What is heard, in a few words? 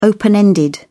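"open-ended", British English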